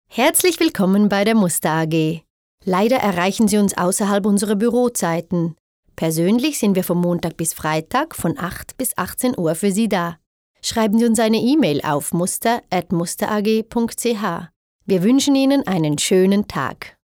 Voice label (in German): Telefonansage, Sprecherin